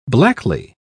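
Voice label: "blackly", British English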